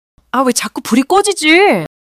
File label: anger; monologue